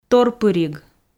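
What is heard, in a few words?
"торпыриг", Komi, female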